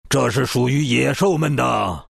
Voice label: deny zh; Dota 2